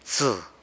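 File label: "btsë", San Lucas Quiaviní Zapotec; tuna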